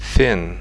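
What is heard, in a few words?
"thin", English; fonetica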